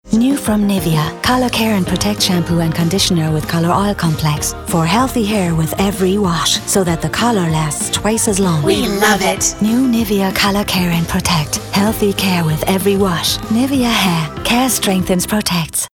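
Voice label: female non-native English shampoo commercial; ideasonora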